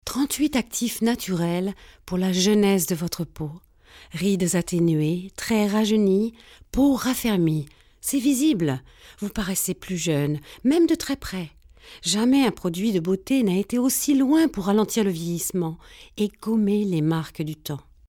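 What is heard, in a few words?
französisch, French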